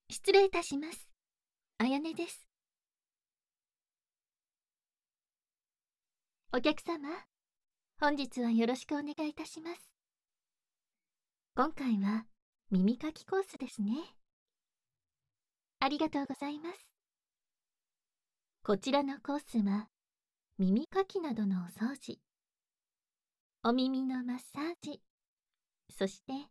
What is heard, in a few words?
voice Only, ASMR